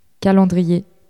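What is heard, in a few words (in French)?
"calendrier", French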